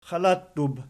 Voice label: "xalattub", Archi

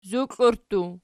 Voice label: "zukliarttu", Archi

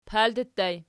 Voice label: "palti", Archi

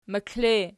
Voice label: "moklol", Archi

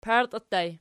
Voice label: "partii", Archi

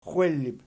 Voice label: "xwellib", Archi

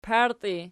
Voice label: "partii", Archi

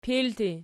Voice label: "pilti", Archi

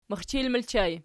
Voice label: "mixchil", Archi

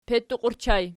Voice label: "petuqi", Archi

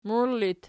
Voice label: "muillit", Archi

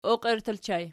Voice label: "oqierttur", Archi

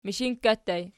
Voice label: "mishinkka", Archi

